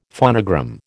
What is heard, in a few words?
"phonogram", American